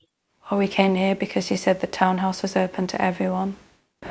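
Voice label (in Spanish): Voice Acting, Spanish, female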